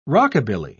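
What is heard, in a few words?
"rockabilly", British English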